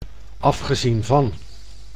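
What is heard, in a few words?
"afgezien van", Dutch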